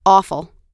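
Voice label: "awful", American English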